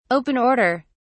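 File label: "open order", British English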